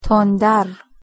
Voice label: "tondar", Persian, F

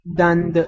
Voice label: "danda", Punjabi, F

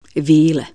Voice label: Croatian "fairies"

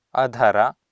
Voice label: "adhara", Sanskrit

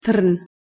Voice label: "trn" Bosnian

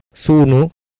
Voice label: "suunu", Sanskrit

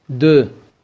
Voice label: "doe" Kurmanji

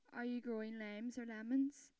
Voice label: belfast female; IViE

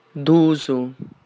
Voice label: "2" Sardinian

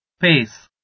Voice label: "pes", Albanian

